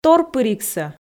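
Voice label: "торпыригсӧ", Komi, female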